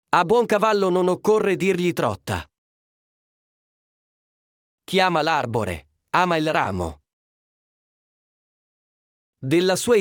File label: Italian proverbs